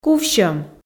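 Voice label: "кувсьӧм", Komi, female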